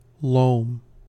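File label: "loam", US English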